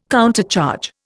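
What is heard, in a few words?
"countercharge", Indian English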